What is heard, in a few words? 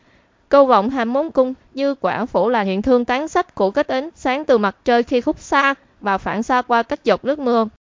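speaker, American English; piper-voices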